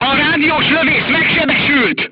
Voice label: voice message machine gunner wounded, crew tanks hungarian